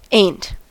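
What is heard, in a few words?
"ain't", US English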